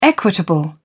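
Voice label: "equitable", British English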